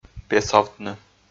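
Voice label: "песовтны", Komi, male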